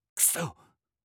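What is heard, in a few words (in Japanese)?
"くそっ", Japanese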